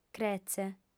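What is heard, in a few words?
"creze", female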